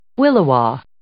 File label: "williwaw", English